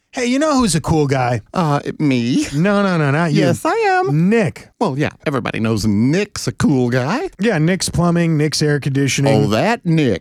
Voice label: RADIO AD; nicks plumbing radio ads